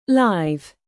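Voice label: "live", verb, British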